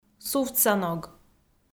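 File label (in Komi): "сувтсаног", Komi, female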